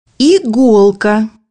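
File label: "needle", Russian; bukvar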